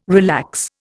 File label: "relax", Indian